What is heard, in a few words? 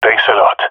thankful, jungle male epic; Counter Strike Model Pack; CS:GO